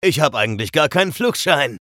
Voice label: chatter neutral; eisen